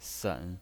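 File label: "sen", Mandarin Chinese pinyin voice, third tone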